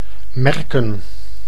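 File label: "merken", Dutch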